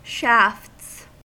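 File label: "shafts", US English; pronunciations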